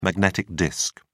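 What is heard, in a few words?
"magnetic disk", British English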